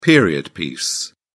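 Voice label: "period piece", British English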